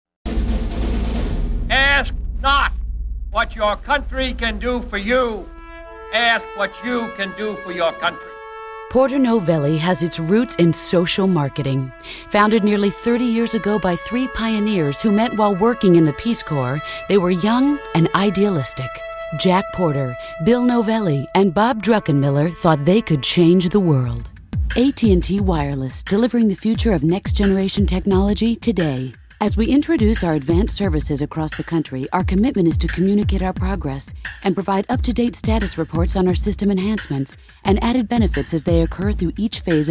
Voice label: Narration